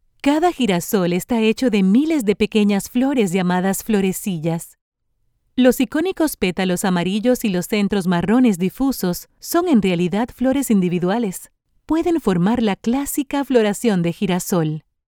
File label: spanish latin american narration